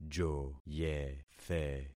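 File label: "joyethe", concatenated syllables; Affixing experiment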